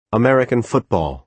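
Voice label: "american football", American English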